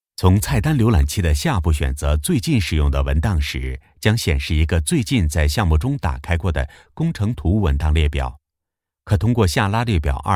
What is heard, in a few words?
chinese mandarin commercial